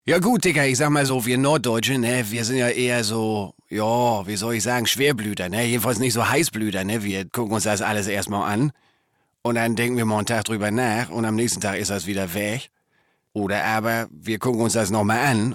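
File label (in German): dialekt, sprachprobe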